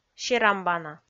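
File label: "серамбана", Komi, female; kpv audio dict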